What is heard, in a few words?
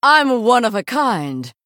Female Human Play